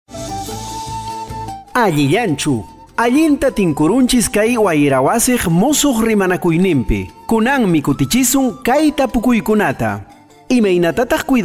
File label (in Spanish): quechua cusco collao 1 voz, spot de radio; entrega de bienes y alimentos; medidas de prevencion